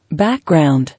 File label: "Background", English